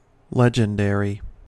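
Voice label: "legendary", US English; pronunciations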